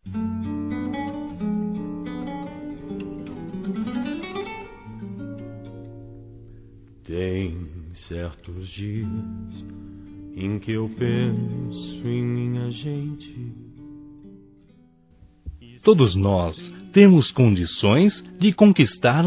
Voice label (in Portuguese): Sucesso Masculino, Telemensagens; Otimismo